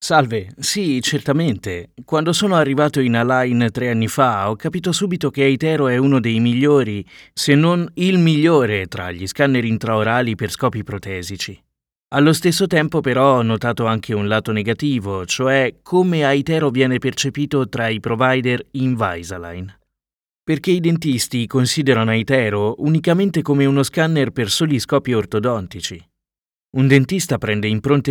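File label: doblaje, Italian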